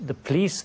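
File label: "the police", Standard Southern British English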